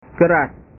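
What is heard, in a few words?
"keras", Indonesian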